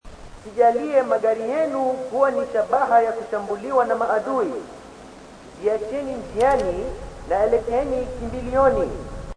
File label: swahili tunnel; h2dialogue